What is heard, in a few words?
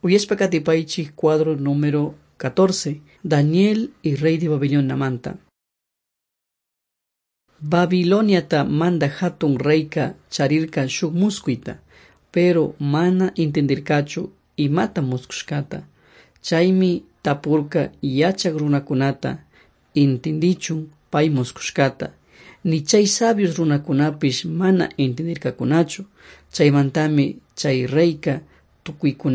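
quichua chimborazo, drama; on trial for god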